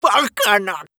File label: oldman damage, voice